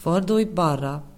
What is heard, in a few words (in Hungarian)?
"balra", Gps